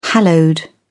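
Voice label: "hallowed", British English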